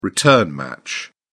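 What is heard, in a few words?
"return match", British English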